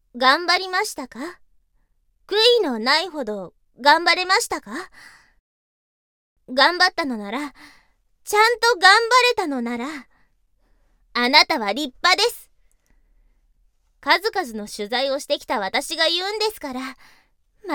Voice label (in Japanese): イメージボイス文 慰めボイス; 東方素芸祭